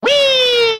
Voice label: wee, cartoon; ooh ahh

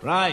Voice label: "right" male

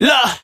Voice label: "lah", voice; ooh ahh

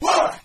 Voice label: what group of men; ooh ahh

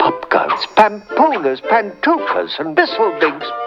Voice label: gibbrish; Voice

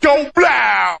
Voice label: "go blaow", ooh ahh

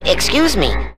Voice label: "excuse me", Voice; expletives

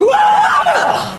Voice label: "woah", Voice

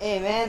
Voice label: "hey man", cartoon; Voice; introductions; hello hi hey

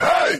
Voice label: hey group of men; ooh ahh

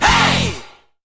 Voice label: "hey", voice

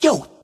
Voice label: "yo", Voice

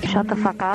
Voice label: shut the fuck up female; expletives

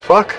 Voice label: "fuck", expletives

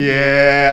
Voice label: "yeah", voice

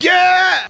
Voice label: "yeah" medium, voice

